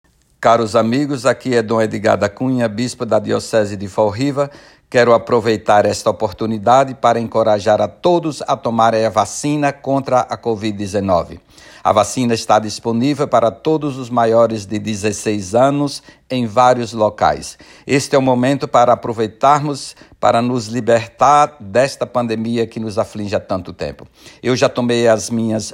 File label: Vaccination PSA Portuguese; COVID-19 vaccination